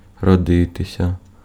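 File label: Ukrainian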